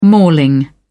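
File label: "mauling", British English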